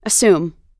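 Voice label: "assume" US English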